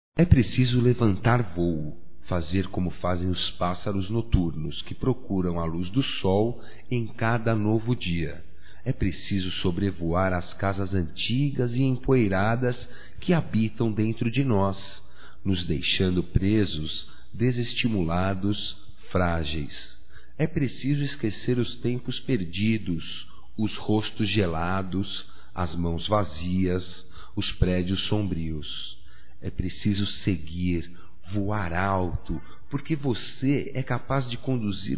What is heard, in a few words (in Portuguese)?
REFLEXAO, masc; Amizades; Telemensagens 2017